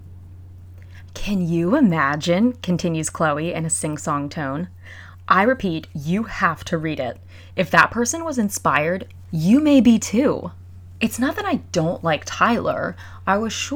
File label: audio book